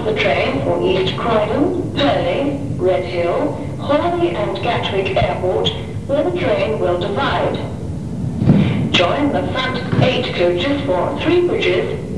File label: clapham junction pre-recorded platform announcement; year 1983